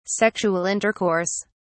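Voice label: "sexual intercourse", British English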